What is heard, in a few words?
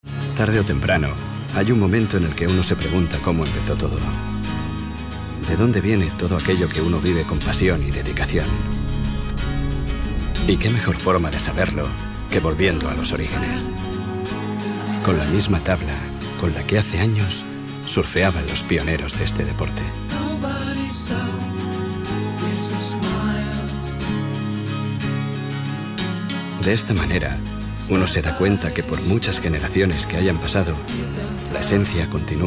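male Spanish car commercial; ideasonora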